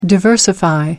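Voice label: "diversify", English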